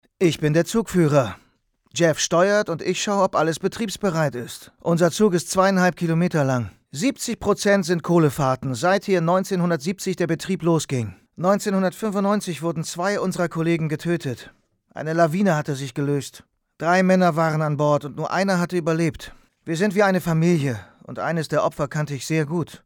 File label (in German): Doku; Rocky Mountain Railroad